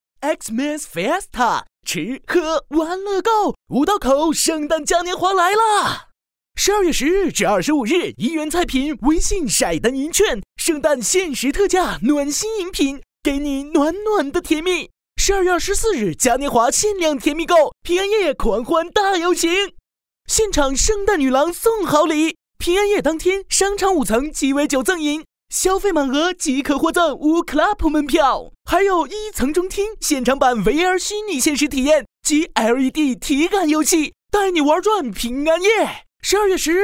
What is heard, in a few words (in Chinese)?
男 年轻轻松语气, 国语男声配音; 地产广告; 圣诞嘉年华城; 男8年轻时尚飞碟说